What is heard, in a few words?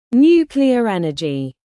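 "nuclear energy", British; Language, linguistics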